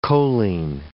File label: "choline", English